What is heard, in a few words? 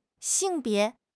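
"xing4 bie2", Chinese; HSK4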